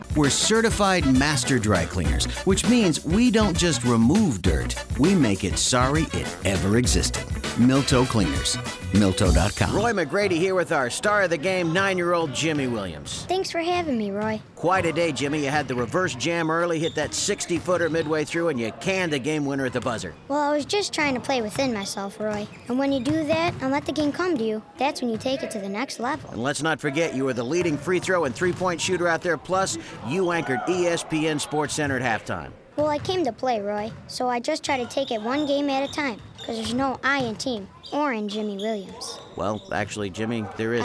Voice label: Commercials; 21st Century

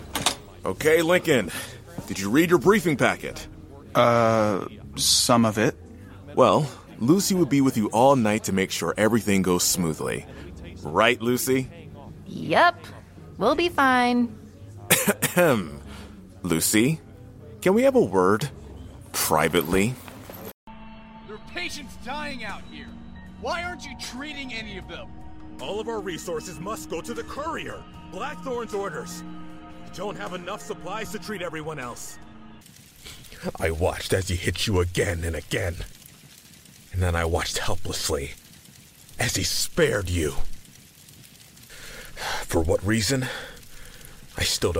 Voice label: Character Reel

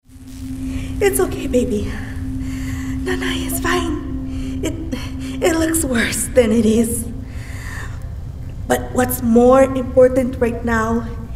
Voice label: caring mother; ISA

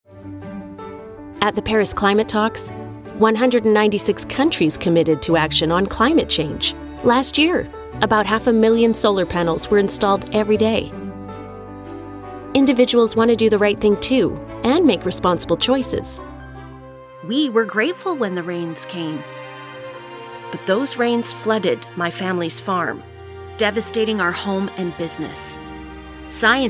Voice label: Explainer Video

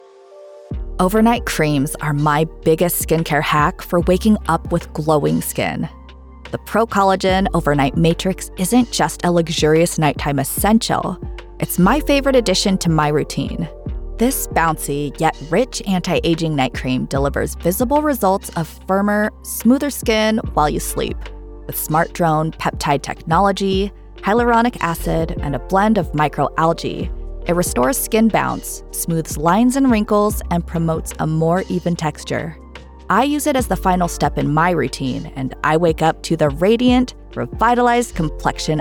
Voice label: Online testimonial

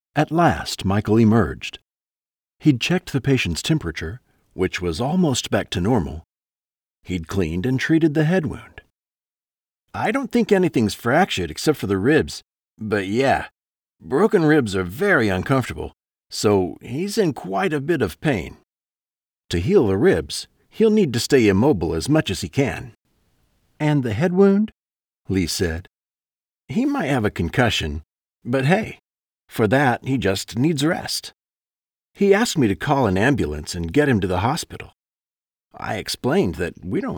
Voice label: Audiobook Fiction Sample Sweet Romance

